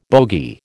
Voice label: "bogie", American